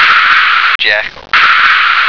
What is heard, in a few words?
"jackal", American